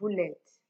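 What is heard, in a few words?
bullet, Naija, female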